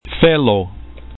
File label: "felo", esperanto